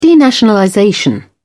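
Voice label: "denationalization", British English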